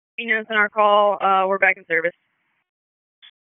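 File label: EMS Dispatch, p25; wake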